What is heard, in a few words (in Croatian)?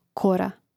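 "kora", Croatian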